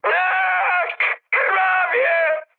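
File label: Polish damaged pilot mood high; War Thunder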